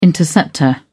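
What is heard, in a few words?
"interceptor", British English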